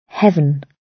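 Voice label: "heaven", British English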